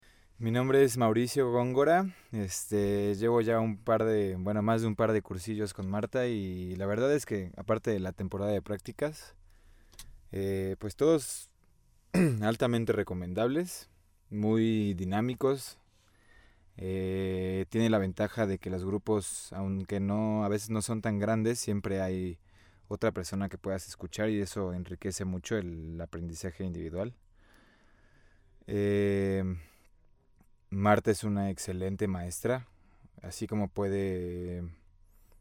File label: Testimonial VO